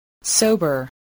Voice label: "sober", English voice